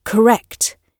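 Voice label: "correct", British English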